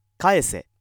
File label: male